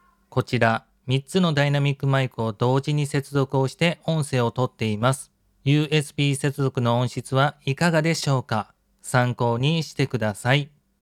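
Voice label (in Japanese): maono PD300X USB接続音声; ダイナミックマイク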